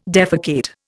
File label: "defecate", Indian